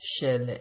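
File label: "shele", Nigerian Pidgin, male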